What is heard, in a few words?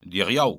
thursday, Breton